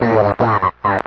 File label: radio voice, Distorted Radio Voice